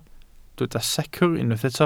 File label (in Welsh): "secr" Welsh